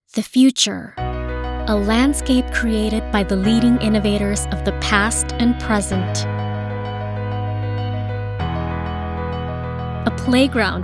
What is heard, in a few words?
Comercial, femalevoices